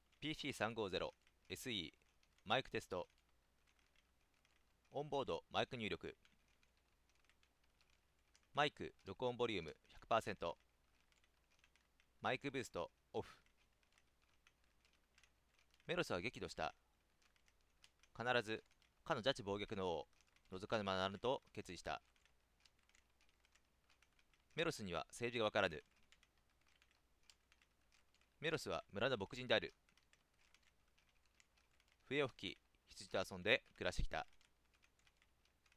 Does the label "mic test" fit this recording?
Yes